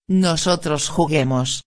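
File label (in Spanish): "juguemos", español; imperativo afirmativo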